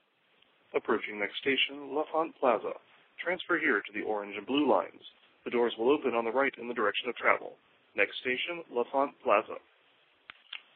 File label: metro announcement freestyle